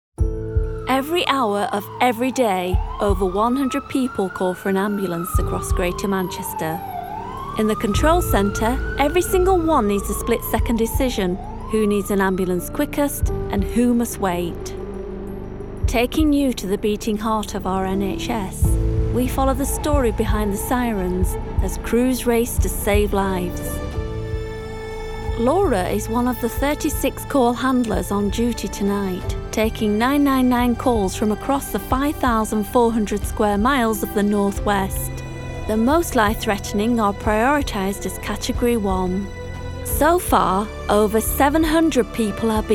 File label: Documentary